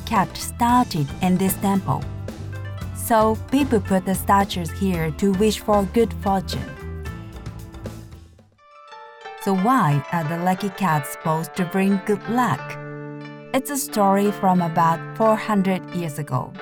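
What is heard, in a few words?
English with JP accent, Voice Over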